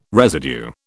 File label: "residue", British